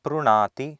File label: "prnaati", Sanskrit